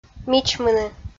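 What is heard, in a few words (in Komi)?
"мичмыны", Komi, female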